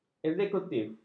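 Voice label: "eksekutif", Malay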